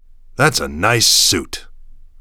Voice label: idle, male; vo npc; Half Life 2; Episode 2